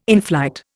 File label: "inflight", Indian